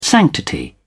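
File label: "sanctity", British English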